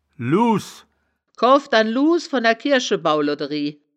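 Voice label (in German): "luuhs", wittlicher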